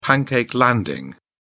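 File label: "pancake landing", British English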